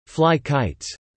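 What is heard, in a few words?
"fly kites" spoken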